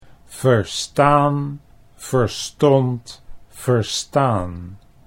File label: "verstaan verstond verstaan", Dutch